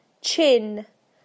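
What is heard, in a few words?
"chin", British English; PictureDictionary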